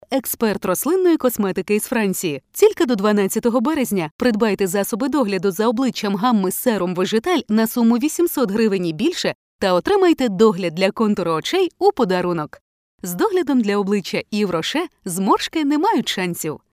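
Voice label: ukrainian voiceover locutora ucraniana, Ukrainian female voice over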